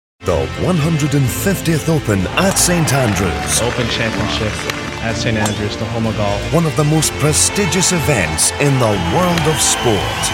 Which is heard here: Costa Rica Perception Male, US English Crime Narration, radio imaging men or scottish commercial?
scottish commercial